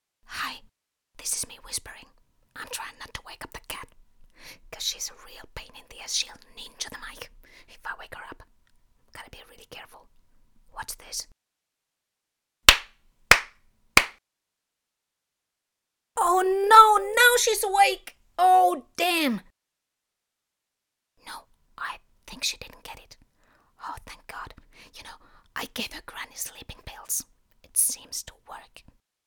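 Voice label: studio, melodic positive, approachable warm, versatile Female Voice; Studio Vet - Whispering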